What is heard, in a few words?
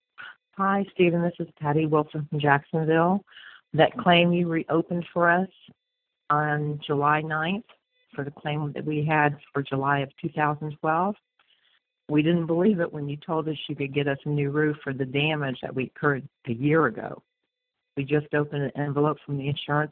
Voicemail